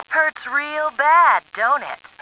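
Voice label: hurts real bad, female; stars; taunts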